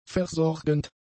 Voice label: "versorgend", German; adjectives